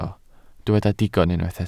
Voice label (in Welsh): "digon", Welsh